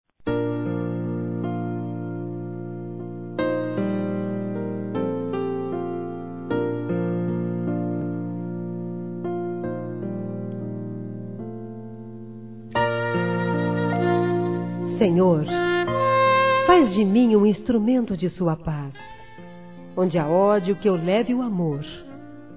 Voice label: ORAÇÃO DE SÃO FRANCISC, fem, Gospel; Telemensagens; Telemensagens 2017

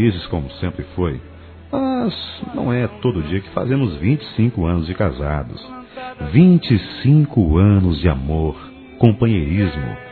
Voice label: Bodas de Prata Masculino, Telemensagens

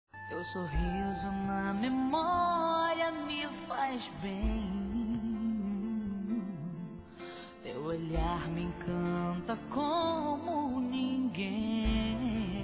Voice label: NATAL ROMANTICO F

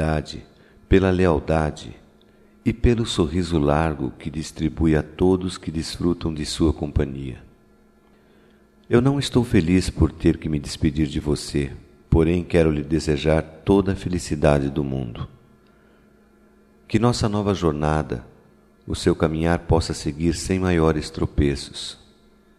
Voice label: BOA VIAGEM (PARA QUEM NÃO VOLTA) M

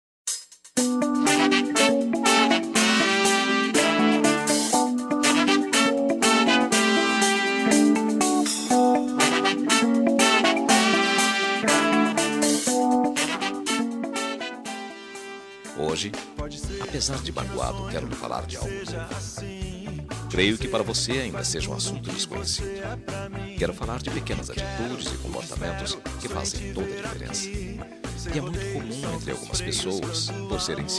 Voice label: Amizade Desleal Masculino

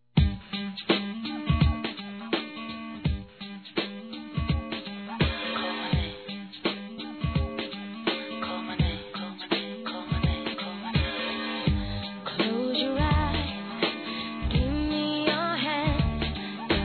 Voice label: Aniversário 15 anos Masculino; Telemensagens 2017; Aniversários

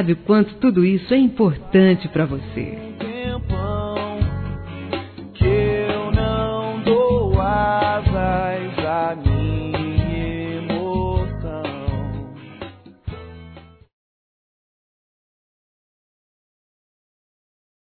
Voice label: Boa Viagem Feminino; Telemensagens; Telemensagens 2017

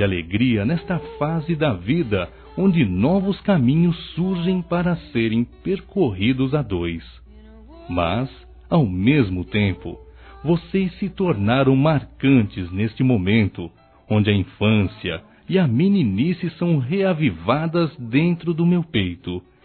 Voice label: AGRADEC. AOS PAIS, Telemensagens, Casamentos, masc

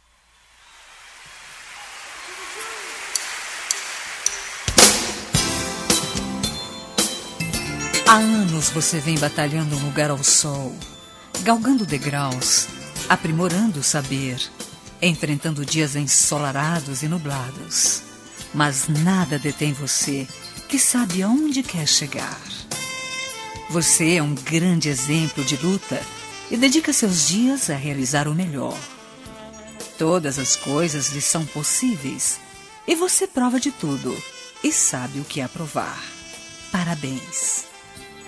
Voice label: FORMATURA FEM; Telemensagens; Formaturas; Telemensagens 2017

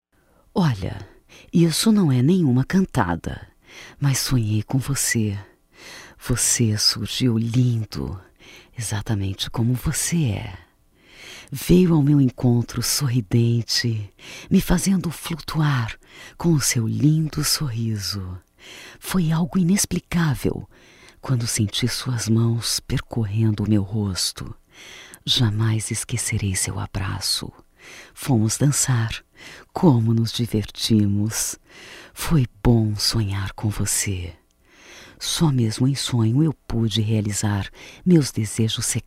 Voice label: Declaração Feminino; Amor; Telemensagens 2017

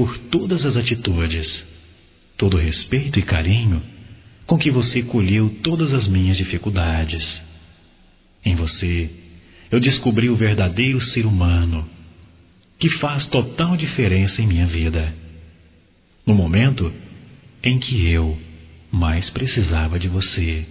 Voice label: Agradecimento Ajuda Masculino

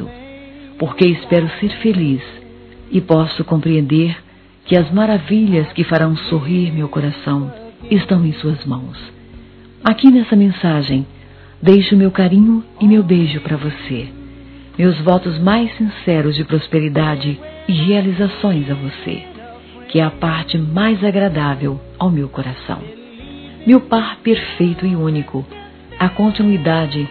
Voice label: ANO NOVO ROMANTIC. F; Telemensagens; Natal e Ano novo; fem

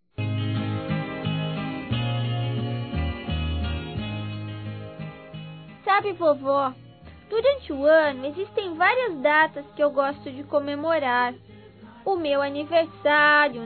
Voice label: FELIZ PASCOA VOVO (VOZ INFANTIL), Telemensagens

